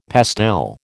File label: "pastel", American